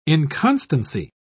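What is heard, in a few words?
"inconstancy", British English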